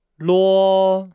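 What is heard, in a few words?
"luo", Chinese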